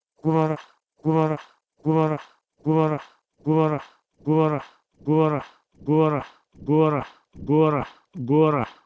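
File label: Proto-Indo-European gwerh2 to Pashto gurH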